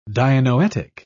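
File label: "dianoetic", British English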